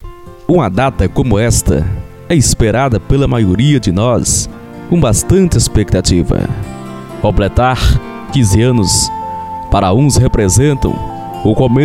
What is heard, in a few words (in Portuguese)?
locutor; aniversario de 15 anos